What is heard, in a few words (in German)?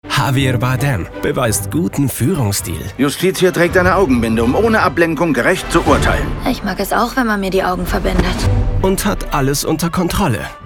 Radiospot; Der perfekte Chef